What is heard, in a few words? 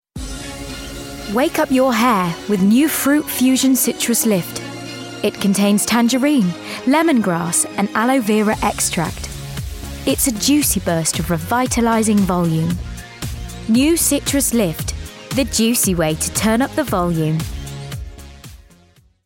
voiceover